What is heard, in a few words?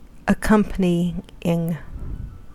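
"accompanying", US English; pronunciation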